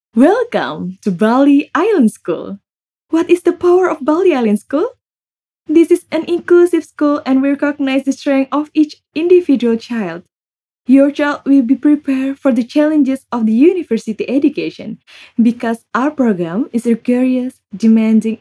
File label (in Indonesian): CASUAL INGGRIS